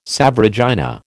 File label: "Salve Regina", American